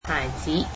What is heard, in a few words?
"child", Cantonese